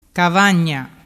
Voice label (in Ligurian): "cavagna", dialettu